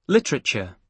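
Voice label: "literature", British English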